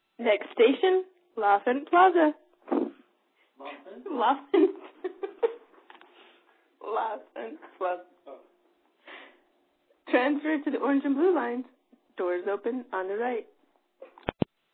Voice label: metro announcement blooper